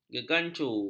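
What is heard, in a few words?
"gegancu", Malay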